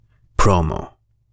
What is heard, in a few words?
Spanish Promo